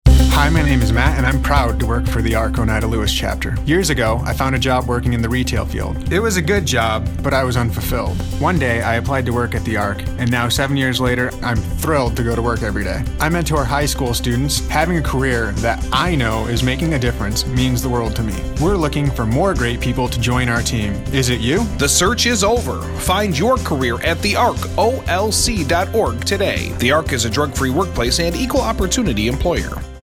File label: Radio Commercial